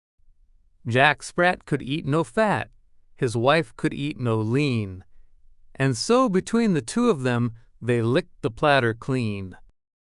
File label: male US, classic rhymes; Jack Sprat